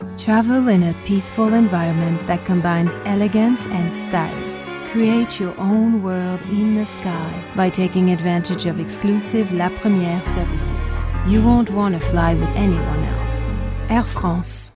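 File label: French English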